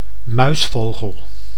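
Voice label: "muisvogel", Dutch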